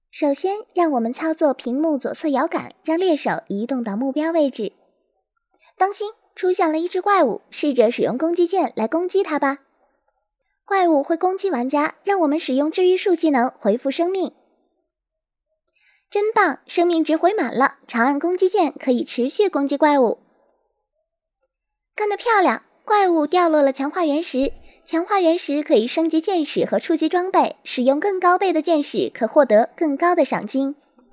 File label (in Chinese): 引导 亲切 游戏